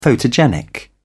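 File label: "photogenic", British English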